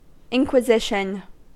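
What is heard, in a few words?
"inquisition", US English; pronunciations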